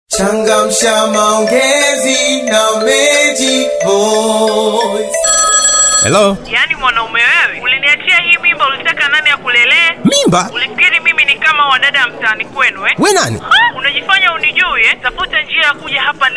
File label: swahili